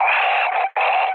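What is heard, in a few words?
damaged pilot, mood low, Polish speech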